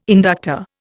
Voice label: "inductor", Indian